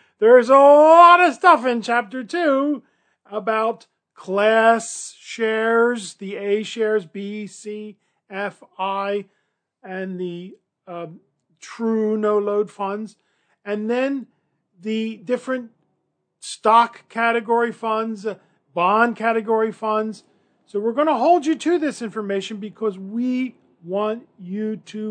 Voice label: Study Guide Commentary